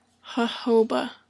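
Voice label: "jojoba", American English; pronunciations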